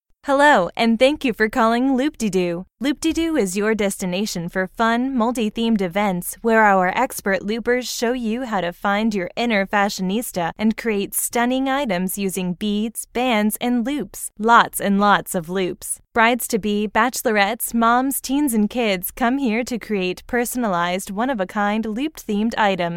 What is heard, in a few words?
telephony